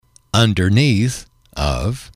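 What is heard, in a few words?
"underneath of", English, male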